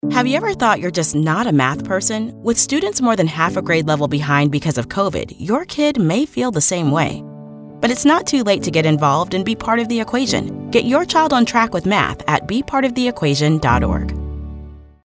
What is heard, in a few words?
English Radio; Bedtime Math